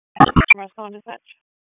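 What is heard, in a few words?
EMS Dispatch, p25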